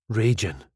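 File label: "ragin", Scottish English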